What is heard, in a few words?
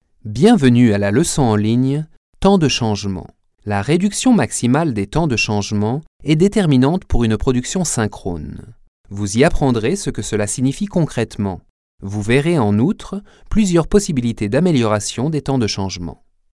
Courseware; Französich m.